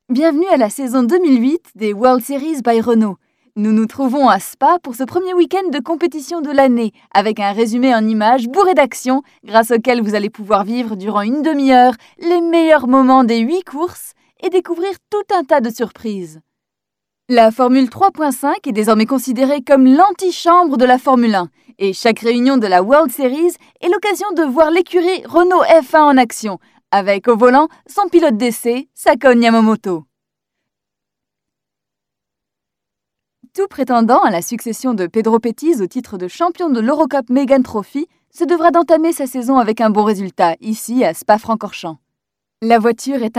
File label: French Narration